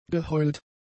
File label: "geheult", German; adjectives